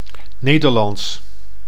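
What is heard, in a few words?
"Nederlands", Dutch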